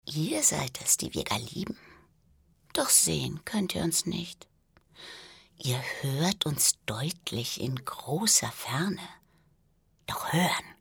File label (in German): psycho, frauen; sprecher